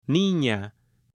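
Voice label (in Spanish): "nina", Spanish, female; AppLenguaje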